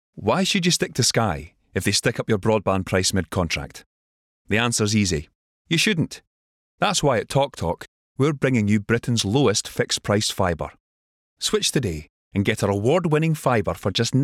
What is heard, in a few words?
Commercial Talk